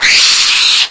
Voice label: announce, stalker; hl2

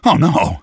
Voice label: "oh no", citizen; hl2

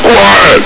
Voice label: "quiet!", Combine soldier; hl2

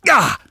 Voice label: pain, citizen; hl2

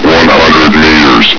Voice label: "hundred meters", Combine soldier; hl2

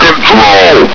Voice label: "control!", combine soldier; hl2

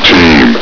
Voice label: "team", Combine soldier; hl2

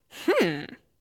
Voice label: cartoon voices male amused surprised; Soundholder

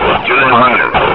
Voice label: lost long, combine soldier, near; hl2

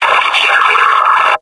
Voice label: throw grenade, combine soldier; hl2

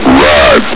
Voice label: "right", Combine soldier; hl2

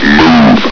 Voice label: "move", combine soldier; hl2

